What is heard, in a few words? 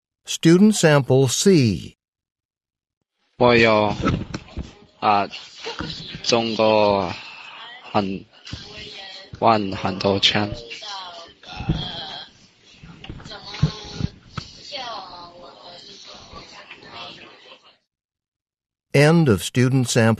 chinese conversation